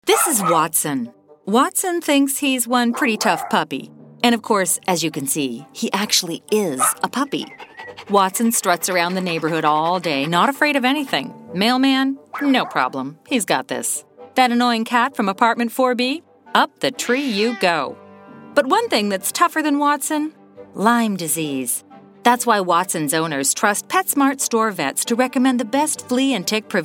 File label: Storyteller Natural